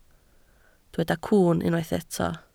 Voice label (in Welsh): "cwwn" Welsh